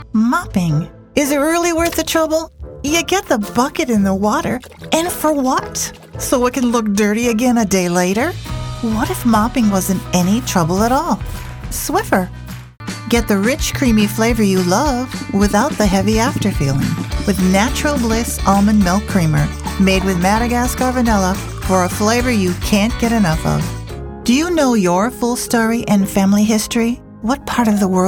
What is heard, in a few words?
commercial; American